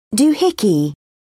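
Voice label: "doohickey", British English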